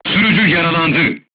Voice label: voice message driver wounded, crew tanks turkish